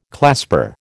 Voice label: "clasper", American